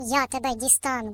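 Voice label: "I'll get you", British; Default uk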